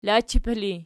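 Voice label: "lachipil" ergative, Archi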